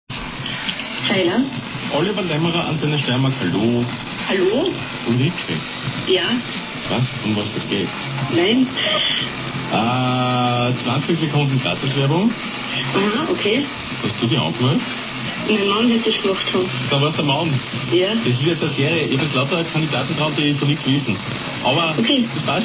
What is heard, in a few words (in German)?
Werbung Glasperlen Werbung